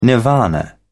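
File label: "nirvana", British English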